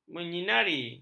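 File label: "menyinari", Malay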